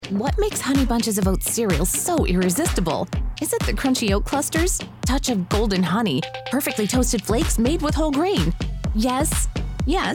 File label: American Commercial, voiceover; Demo